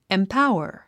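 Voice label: "empower", English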